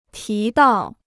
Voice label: "提到", Chinese

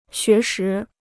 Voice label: "学时", Chinese

